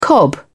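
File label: "cobnut", British English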